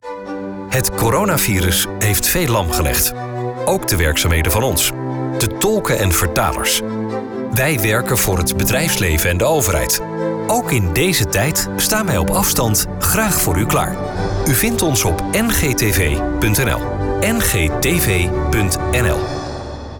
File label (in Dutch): Radiocommercial Corona